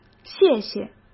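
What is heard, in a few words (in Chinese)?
"谢谢", Mandarin Chinese; hsk; chinese-flashcards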